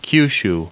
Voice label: "Kyushu", en us

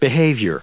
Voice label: "behavior", en us

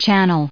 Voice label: "channel", us

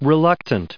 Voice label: "reluctant", en us

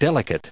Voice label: "delicate", en us

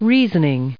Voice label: "reasoning", us

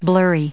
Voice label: "blurry", us

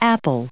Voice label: "apple", us

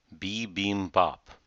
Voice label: "bibimbap", en us

